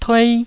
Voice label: "tuei1", taiwanese

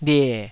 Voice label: "lie3", Taiwanese

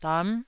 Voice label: "dam9", Taiwanese syllable

